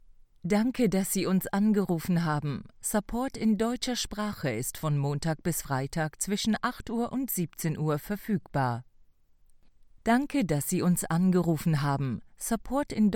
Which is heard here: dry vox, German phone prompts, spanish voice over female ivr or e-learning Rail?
German phone prompts